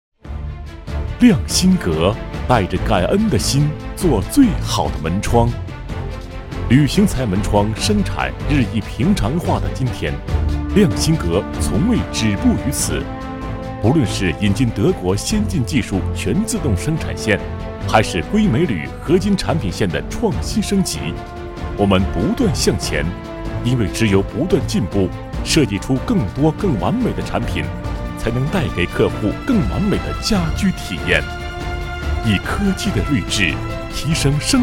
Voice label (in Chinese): 男22 建材有限公司（浑厚）; 佛山市亮心阁建材有限公司